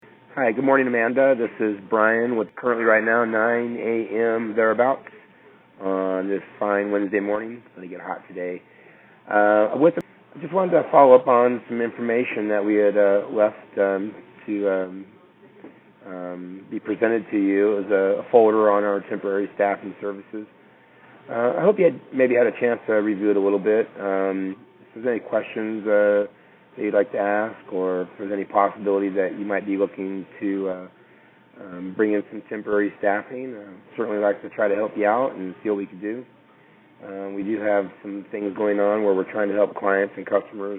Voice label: Voicemail Sample Random; Factor 8